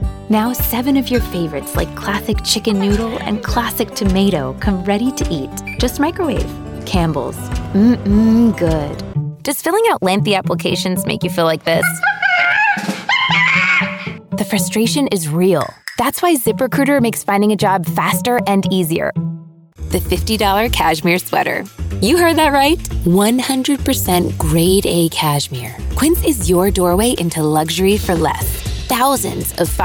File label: Commercial